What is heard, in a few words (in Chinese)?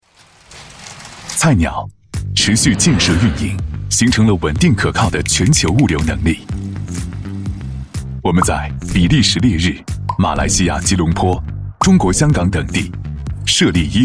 男 年轻向上 宣传片; 菜鸟